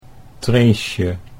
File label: "Treesje" Dutch